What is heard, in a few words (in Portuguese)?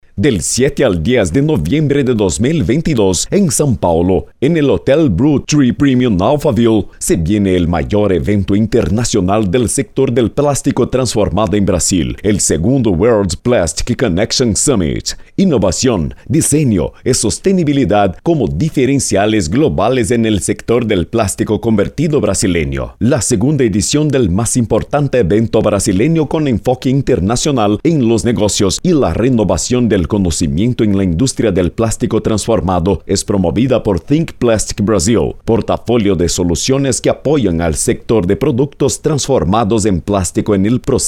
Espanhol; Think Plastic Brazil 2022